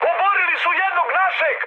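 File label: Serbian aircraft destroyed mood high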